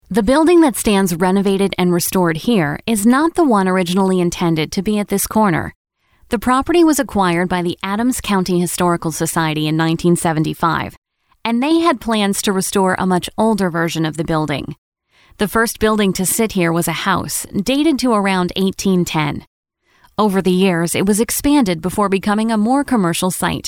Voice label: American English Narration